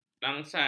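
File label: "langsai", Malay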